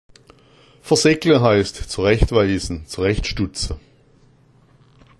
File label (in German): verseggla, schwaebisch